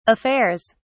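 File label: "affairs", English, uk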